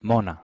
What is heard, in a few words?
"mona", Anglo Saxon